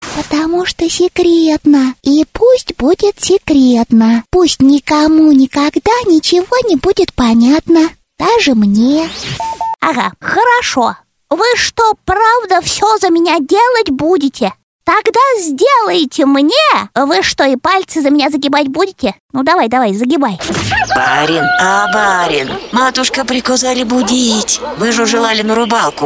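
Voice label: Detskiy, child voice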